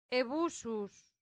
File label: "ebussus", latin; santillana